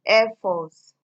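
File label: "airforce", Nigerian Pidgin, female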